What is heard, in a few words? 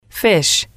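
"fish", English, female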